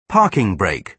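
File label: "parking brake", British English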